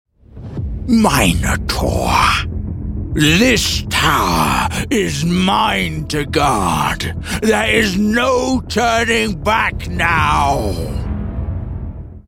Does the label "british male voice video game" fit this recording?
Yes